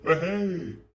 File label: human male greeting